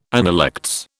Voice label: "analects", British